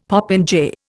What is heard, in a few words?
"popinjay", Indian; Dictionary